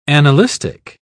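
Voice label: "annalistic", British English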